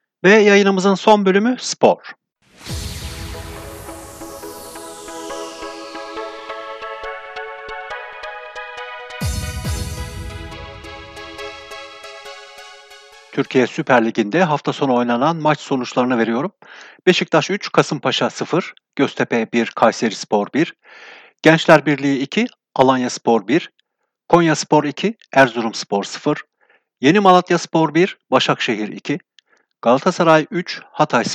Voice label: turkish sport; Süper Ligde bu hafta; SBS Türkçe; Hafta sonu oynanan Türkiye Süper Ligi sonuçları.

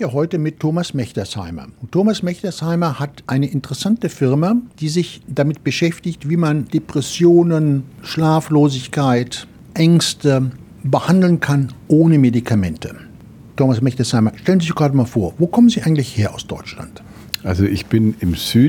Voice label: german; Brain Modulation; SBS German

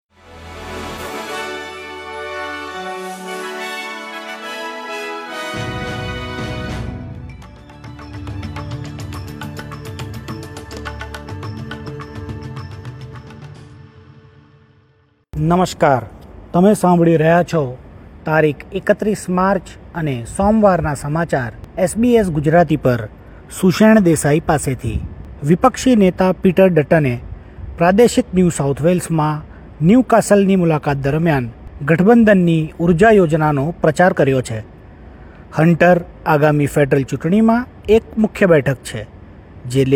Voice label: gujarati newsflash; SBS Gujarati Australian update: 31 March 2025

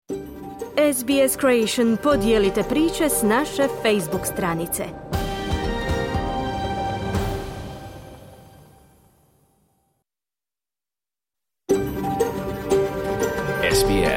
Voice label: croatian, Program SBS-a na hrvatskom jeziku

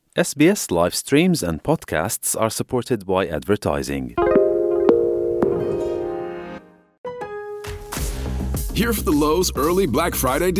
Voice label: mandarin news flash; 外长黄英贤确认20名澳人逃离加沙抵达埃及; SBS Chinese; SBS新闻快报

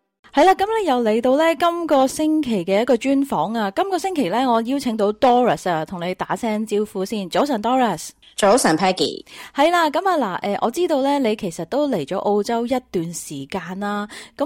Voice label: cantonese; 墨爾本; SBS Chinese

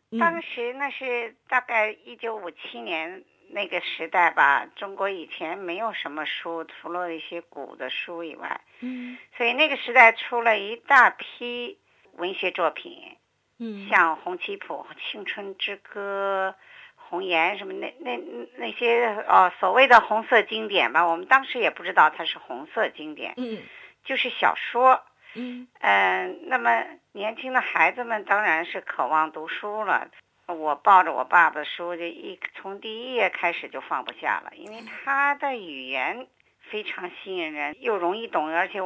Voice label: mandarin; SBS 普通话电台

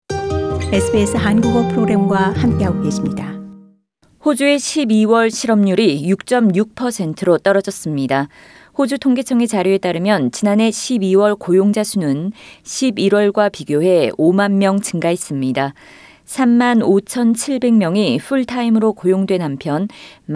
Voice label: korean; 호주 12월 실업률 6.6%; SBS Korean

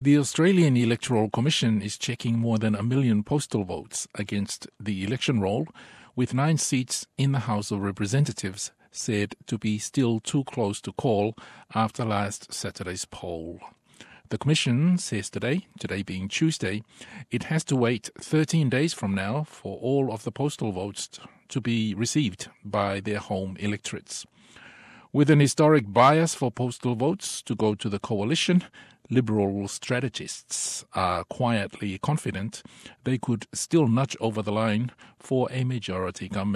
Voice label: samoan; SBS Samoan; E ono faato'a maua i le isi 2 vaiaso faai'uga o le palota

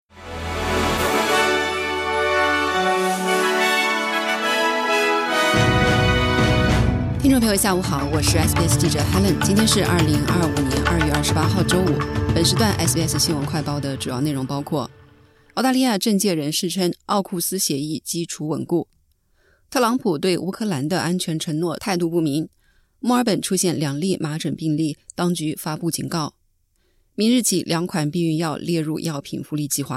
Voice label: mandarin newsflash; 澳洲政界人士称AUKUS协议基础稳固; SBS Chinese; SBS新闻快报

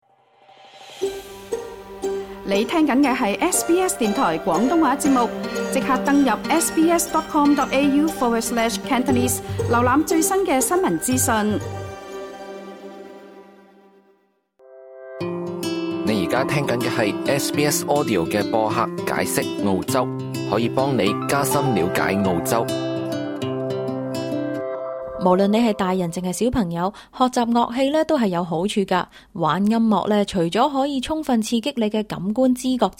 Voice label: cantonese; 澳洲邊度可以學音樂？邊種樂器先啱自己？; ao zhou ding ju zhi nan; SBS Chinese

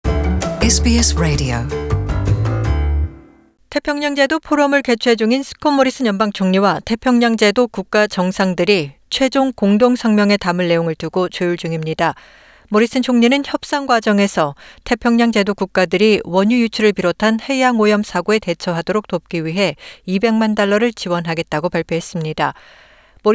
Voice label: korean; SBS Korean; 모리슨 총리, 태평양 기후 회의에서 2백만 달러 지원 약속

